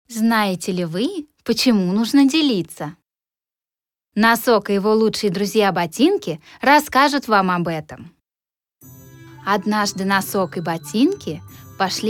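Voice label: Russian childrens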